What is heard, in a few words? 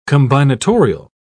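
"combinatorial", British English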